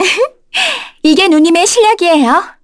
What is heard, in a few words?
Victory, kr; Cassandra; kingsraid